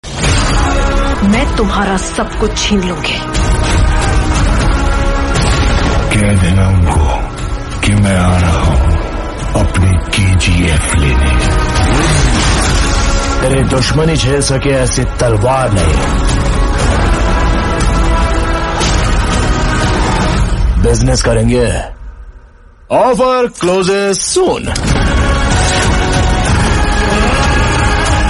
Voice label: Dialogue in Hindi; Kgf Chapter 2